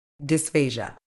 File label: "dysphasia", uk